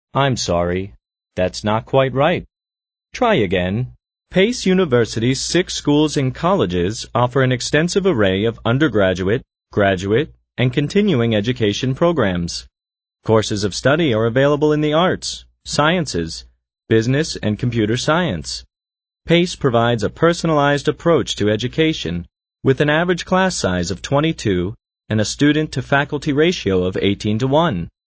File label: American English, M